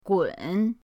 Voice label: "gun3", pinyin; pinyindct-open

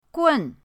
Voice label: "gun4", pinyin

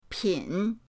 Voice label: "pin3", pinyin

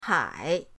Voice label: "hai3", pinyin

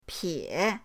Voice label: "pie3", pinyin

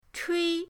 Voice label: "chui1", pinyin

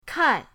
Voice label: "kai4", pinyin

